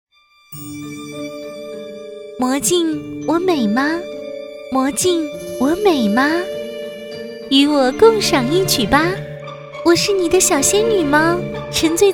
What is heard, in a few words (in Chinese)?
游戏 女声